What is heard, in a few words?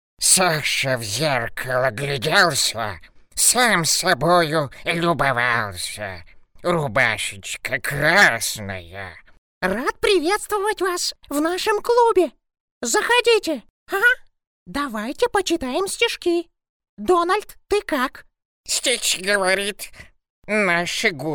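Ukrainian, male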